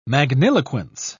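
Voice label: "magniloquence", British English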